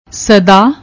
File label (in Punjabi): "sda", Punjabi; ਸਦਾ